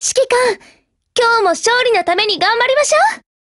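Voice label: HELLO Japanese, Voice; GF Alarm; 6P62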